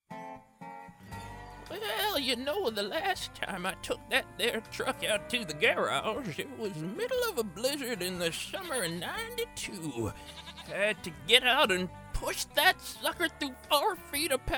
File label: animation men